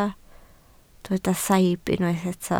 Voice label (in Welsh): "saib", Welsh